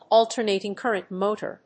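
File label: "alternating-current motor", English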